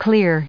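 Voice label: "clear", English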